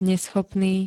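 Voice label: "neschopný", Slovak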